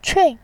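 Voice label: "qun", Mandarin Chinese, fourth tone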